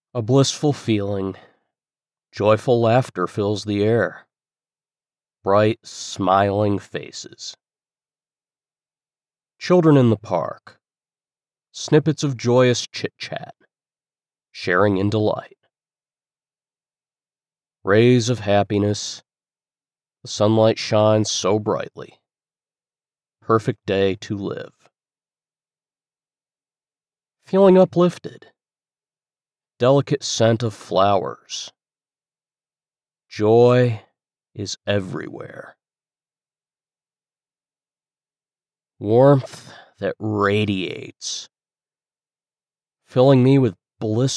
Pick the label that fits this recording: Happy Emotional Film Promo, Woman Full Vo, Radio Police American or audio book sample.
audio book sample